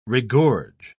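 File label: "regorge", British English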